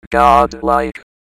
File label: "godlike", robot; Announcer